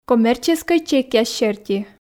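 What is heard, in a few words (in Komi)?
коммерческӧй чекъяс серти, Komi, female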